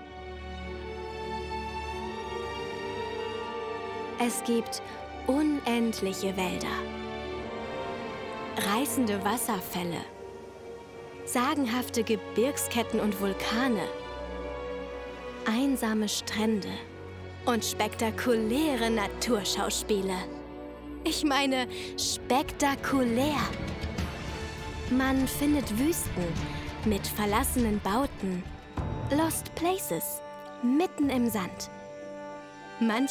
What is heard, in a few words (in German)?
Werbung Erde episch